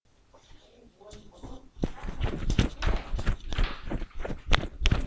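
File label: "book", spoken word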